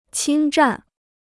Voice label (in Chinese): "侵占", Chinese